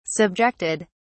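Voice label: "subjected", British English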